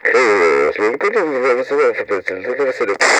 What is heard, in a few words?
Walkie Talkie Chatter; Communications